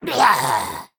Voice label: Male Imp Death